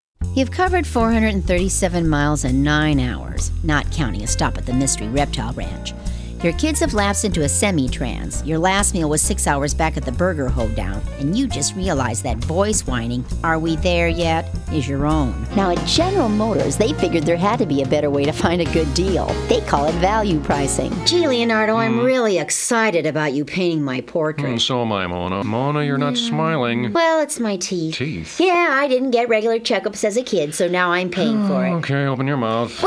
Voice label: Commercial; demo reels